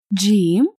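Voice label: "jiim", Arabic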